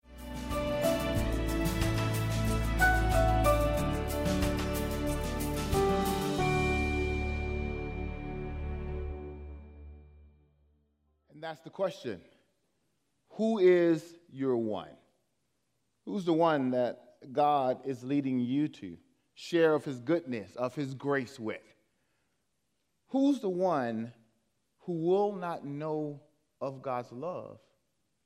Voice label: English Sermon